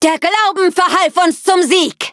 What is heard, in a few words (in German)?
victory, de; cookie run